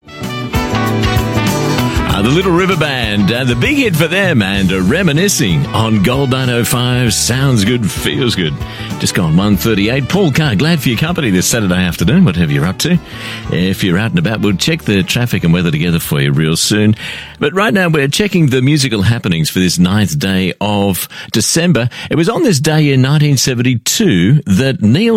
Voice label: AU Mid Atlantic English, English Australian Male; GOLD 905

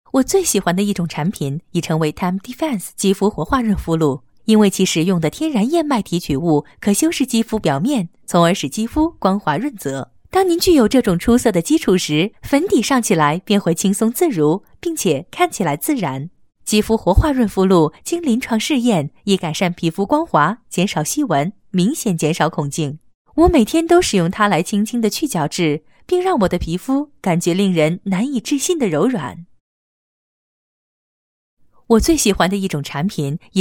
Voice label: Commercial Mandarin Female; China

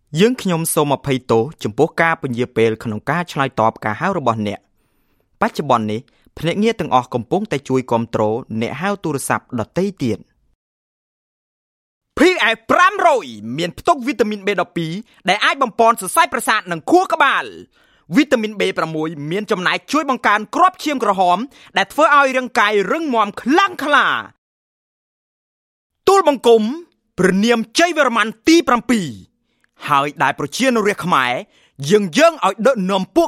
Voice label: Khmer Male

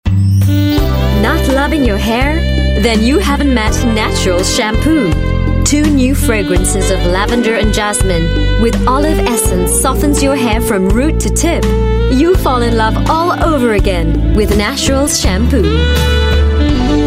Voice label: Asian English natural shampoo

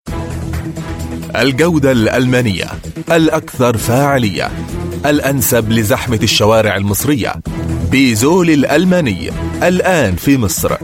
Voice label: Arabic Oil; Bizol

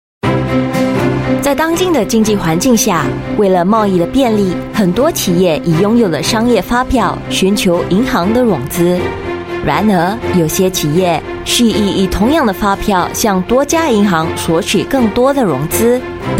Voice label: Mandarin Corporate Introduction

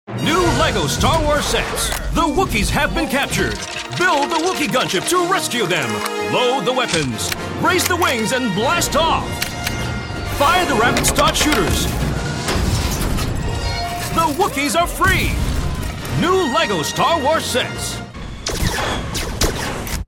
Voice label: Asian English; LEGO Star Wars Rebels

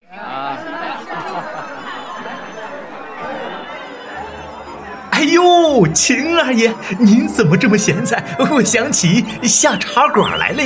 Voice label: Mandarin China History

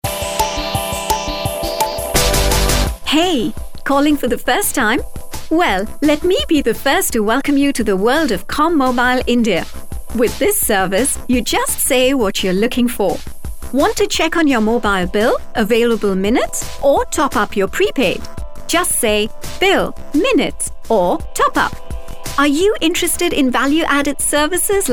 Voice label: IVR On hold, Female; India

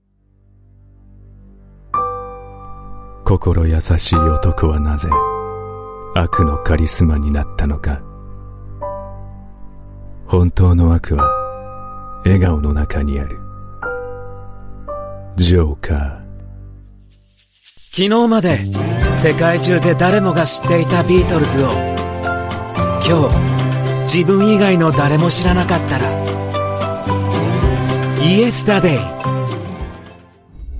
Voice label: Japanese Movie Trailer

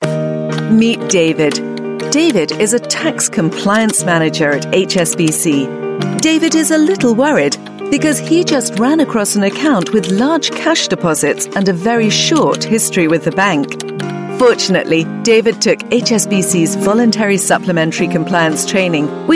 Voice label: UK English Explainer; HSBC

